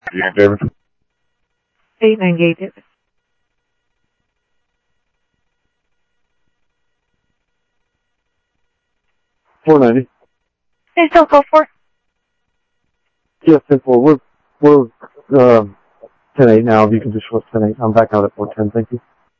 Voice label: Sheriff Central, scanner audio trunk